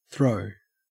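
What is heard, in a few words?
"throw", Australian English; pronunciations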